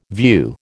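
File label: "view", American English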